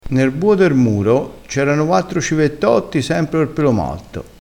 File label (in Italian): "civettotto", crespinese